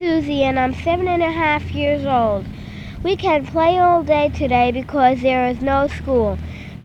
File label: Political street speakers and kids